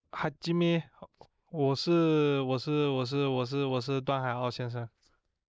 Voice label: denoise output; STT Server